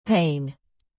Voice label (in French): "pain", alphabet fr native